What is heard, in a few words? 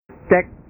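"cek", Indonesian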